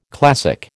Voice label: "classic", American